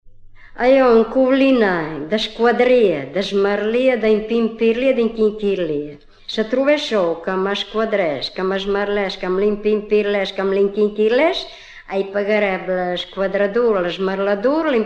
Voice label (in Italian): scioglilingua, romagna